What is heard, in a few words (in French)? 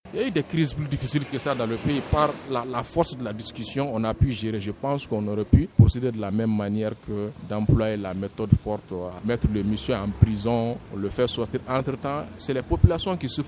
VOX POP; LIBERATION; FR